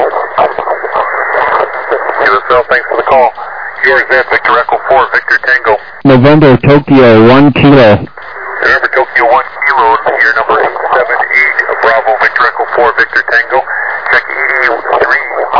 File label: SSB USB 14MHz